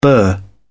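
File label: "bur", British English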